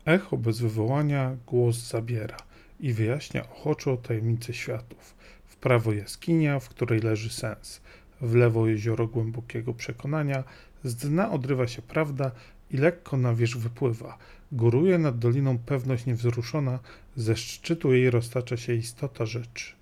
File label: Ext Mic